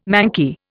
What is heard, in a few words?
"manky", Indian